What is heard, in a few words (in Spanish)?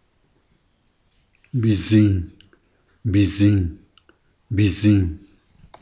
ratón, Zapoteco San Pablo Villa de Mitla; animales; Vocabulary; sta maria albarradas